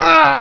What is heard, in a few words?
co pain, cowboy; wanted